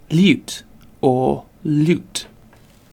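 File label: "lute", British English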